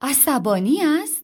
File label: angry